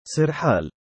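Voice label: "sir7aal", Arabic, male; Sirhal、Sirhaal; オオカミ（狼）；ライオン（獅子）; سِرْحَال; スィルハール; given name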